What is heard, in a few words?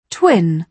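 "twin", British English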